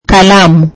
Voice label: "pen", swahili